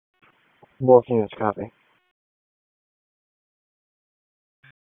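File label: Police District Dispatch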